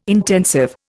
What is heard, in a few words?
"intensive", Indian